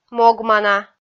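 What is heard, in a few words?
"могмана" Komi, female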